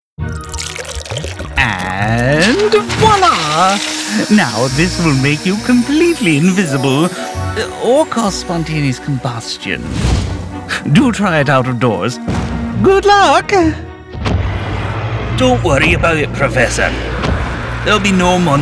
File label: Animation